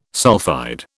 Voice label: "sulphide", British